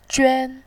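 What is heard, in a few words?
"juan", Mandarin Chinese, first tone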